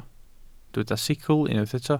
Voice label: "sicl", welsh